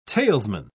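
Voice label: "talesman", British English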